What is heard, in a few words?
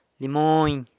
"limony", lime, San Lucas Quiavini